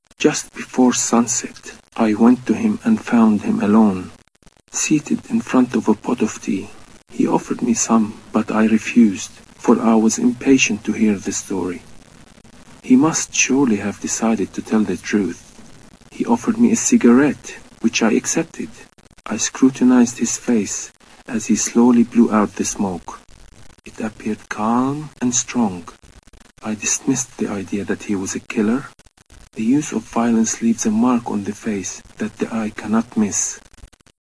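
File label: Arabic English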